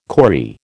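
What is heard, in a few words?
"corrie", American English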